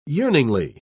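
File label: "yearningly", British English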